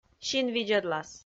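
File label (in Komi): "синвидзӧдлас", Komi, female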